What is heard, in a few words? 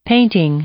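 "painting", English, female; noun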